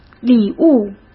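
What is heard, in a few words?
"礼物", Mandarin Chinese; chinese-flashcards; hsk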